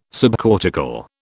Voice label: "subcortical", British

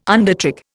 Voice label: "undertrick", Indian

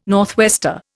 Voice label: "northwester", Indian

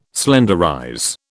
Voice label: "slenderize", British

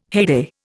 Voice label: "heyday", Indian

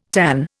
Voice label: "tanh", Indian

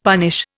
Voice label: "punish", Indian; Dictionary

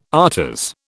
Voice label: "afters", British

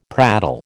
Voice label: "prattle", American

